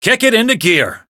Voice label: variable chant

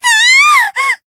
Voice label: damaged, jp; sincere

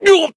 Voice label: Voice damaged, jp

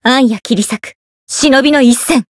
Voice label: Voice chant, jp

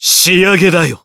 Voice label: finish, jp; zeviro

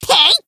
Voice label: Voice attack, jp; morgana

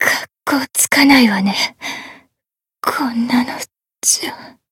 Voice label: Voice anotherstyle retire, jp

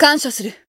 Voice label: Voice healed jp

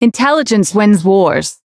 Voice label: variable chant

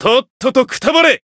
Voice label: finish jp; majuou

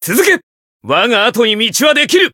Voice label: chant jp

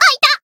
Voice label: damaged, jp; urania

